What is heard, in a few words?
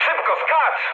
bailout mood med, speech pol; War Thunder